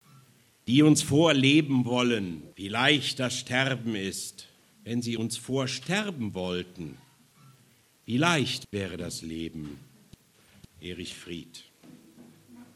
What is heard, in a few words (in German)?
ansage